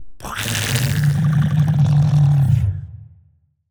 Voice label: portrait synthetic; Stellaris; machineage

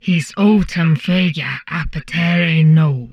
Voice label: humanoid portrait female; Stellaris; humanoids

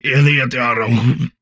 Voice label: humanoid portrait male; Stellaris; humanoids

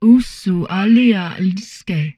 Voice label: humanoid portrait female; Stellaris; humanoids

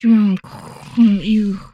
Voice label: humanoid female; Stellaris

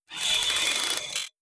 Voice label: robot reptilian greetings; Stellaris; synthetic dawn

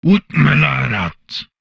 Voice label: humanoid male; Stellaris

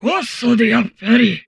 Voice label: humanoid portrait female; Stellaris; humanoids

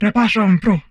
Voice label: humanoid female; Stellaris; humanoids

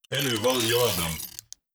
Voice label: robot human male greetings; Stellaris; synthetic dawn

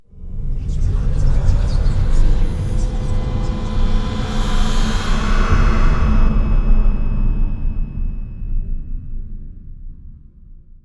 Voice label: archeology event whispering; ancient relics

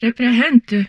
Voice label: humanoid female; Stellaris

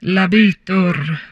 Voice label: humanoid female; Stellaris; humanoids

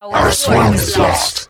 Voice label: advisor notification fleet destroyed; Stellaris; ethics; hivemind

